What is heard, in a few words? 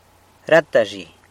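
"rata zhi", San Lucas Quiaviní Zapotec; everyday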